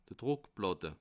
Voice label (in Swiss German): "druckplatte", alsace